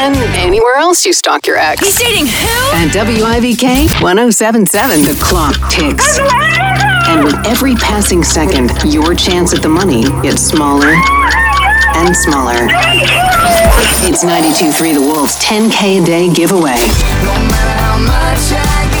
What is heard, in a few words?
Country Imaging